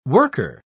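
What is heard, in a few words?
"worker", English word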